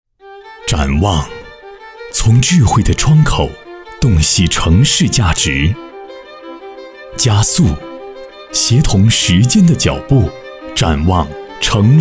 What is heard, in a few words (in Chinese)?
专题浑厚有气势男